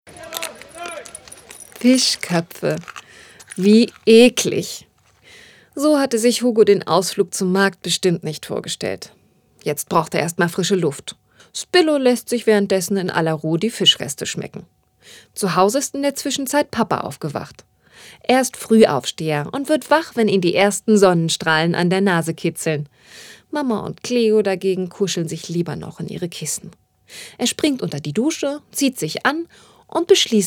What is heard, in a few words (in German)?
kinderhoerspiel erzaehlerin